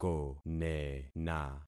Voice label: "konena", concat syllables; Affixing experiment

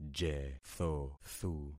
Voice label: "jethothu", concat syllables; Affixing experiment

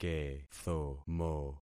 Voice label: "kethomo", concat syllables; Affixing experiment

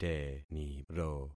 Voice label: "teniro", concat syllables; Affixing experiment

